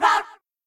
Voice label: "rah rah sis boom ba boom", girls; cheerReaders